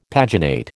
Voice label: "paginate", American